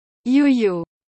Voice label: "Yoyo", french

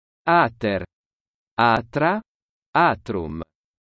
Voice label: Alter latin

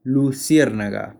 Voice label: Firefly spanish

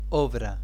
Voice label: Play spanish

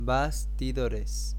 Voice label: spanish

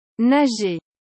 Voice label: "Nager", french

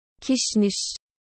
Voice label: Coriander turkish

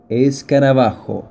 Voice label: Beetle spanish

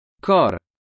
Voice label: "Cor", latin

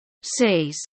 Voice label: "Seis", spanish

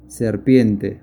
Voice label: Snake spanish

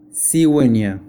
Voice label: Stork spanish

